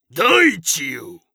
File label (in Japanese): 大地よ！, 戦闘ボイス 中年・低音